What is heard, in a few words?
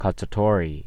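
"cacciatore", US English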